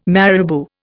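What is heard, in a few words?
"marabou", Indian English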